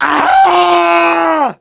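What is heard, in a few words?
pain critical death, ru; Demoman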